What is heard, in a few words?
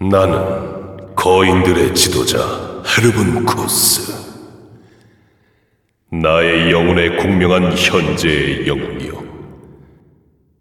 cinematic voice; lineage2